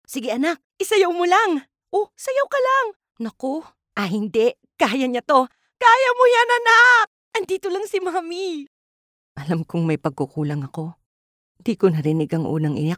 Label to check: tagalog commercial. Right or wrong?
Right